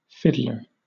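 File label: "fiddler", British English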